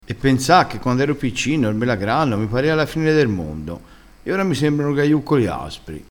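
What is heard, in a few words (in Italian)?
"ghiaiuccoli", vocabolario dialettale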